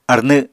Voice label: "arnw", Apatani